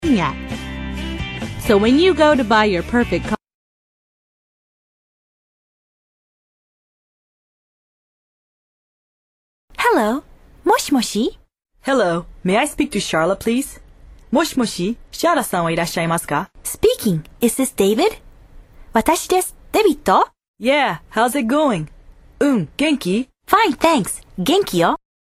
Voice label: usa woman; MediaVoix; vice sample